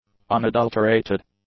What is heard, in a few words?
"unadulterated", English; adjectives